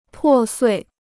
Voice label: "破碎", Chinese; pò suì; to smash to pieces; to shatter

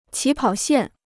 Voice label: "起跑线", Chinese

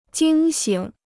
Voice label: "惊醒", Chinese

